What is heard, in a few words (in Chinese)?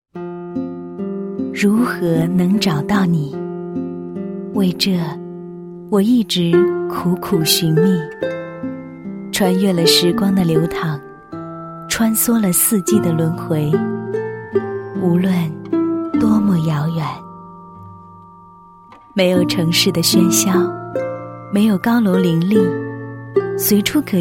女 散文诗歌; 如何能找到你